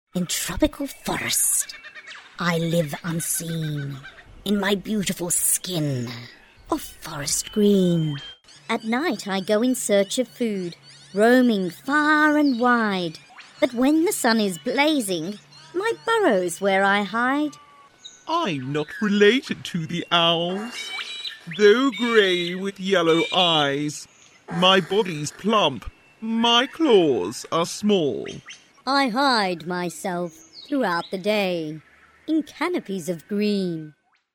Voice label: female australian voice over character